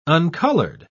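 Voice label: "uncolored", British English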